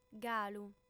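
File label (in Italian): "galu", lingua sarda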